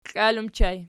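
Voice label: "klal" ergative plural, Archi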